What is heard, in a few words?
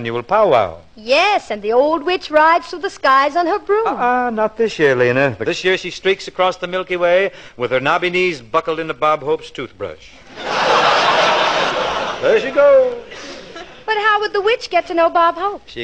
Halloween Radio Spot; Bing Crosby; halloween for all times